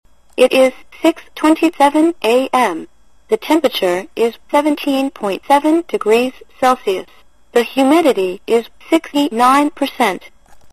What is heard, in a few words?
all-purpose talking clock